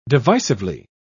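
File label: "divisively", British English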